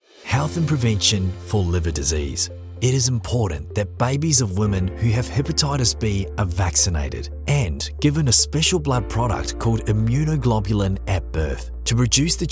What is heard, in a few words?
Health and Prevention Medical, en-AU